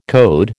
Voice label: "code", American

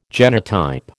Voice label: "genotype", American

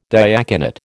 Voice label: "diaconate", American

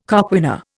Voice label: "coquina", Indian

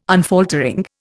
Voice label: "unfaltering", Indian

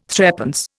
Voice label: "threepence", Indian

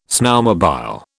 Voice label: "snowmobile", British

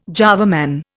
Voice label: "Java Man", Indian

